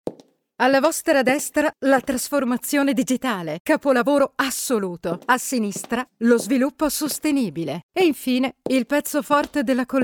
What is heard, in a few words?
radio; LINEA; THE FUTURE GALLERY; campagna rebranding 2025